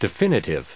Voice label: "definitive", en us